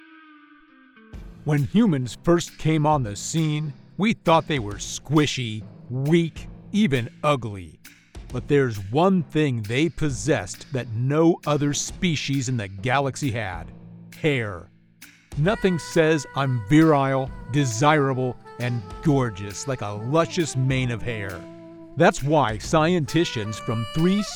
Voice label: Ad, voiceover